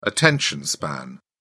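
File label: "attention span", English, British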